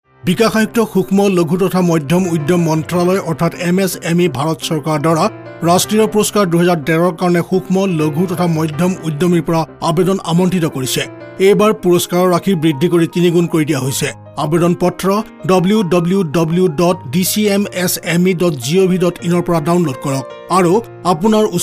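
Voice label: Assamese, male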